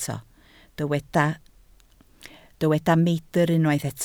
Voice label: "meidr", welsh